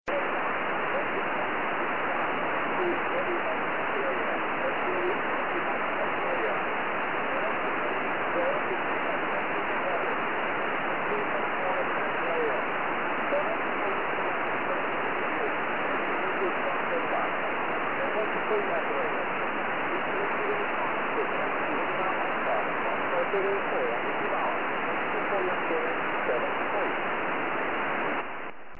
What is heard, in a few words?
SSB sample